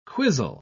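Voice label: "quisle", English, uk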